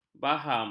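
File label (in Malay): "baham", Malay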